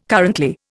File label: "currently", Indian